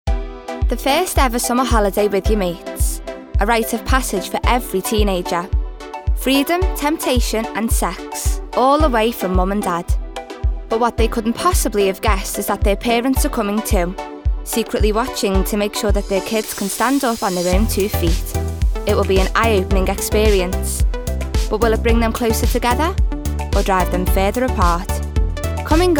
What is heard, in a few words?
Documentary